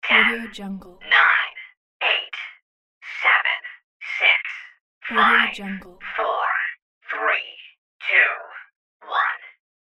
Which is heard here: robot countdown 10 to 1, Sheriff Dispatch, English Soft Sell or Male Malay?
robot countdown 10 to 1